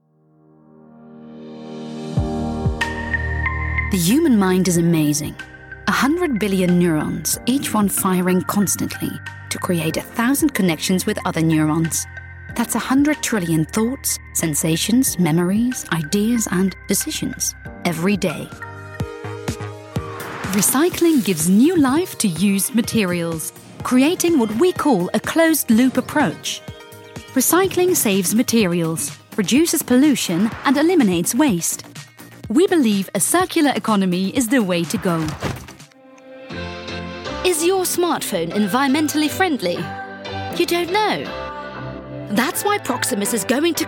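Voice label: UK Corporate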